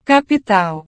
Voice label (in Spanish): "capital", Portugués